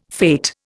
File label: "fate", Indian